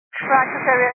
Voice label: 122.925 MHz; scanner radio